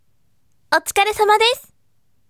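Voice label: voice "thank you for your hard work" Japanese, bright; otukaresamadesu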